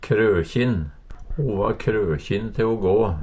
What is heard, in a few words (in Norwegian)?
krokjin, Numedalsmål; Adjektiv